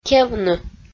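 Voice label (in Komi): "кевны", Komi, female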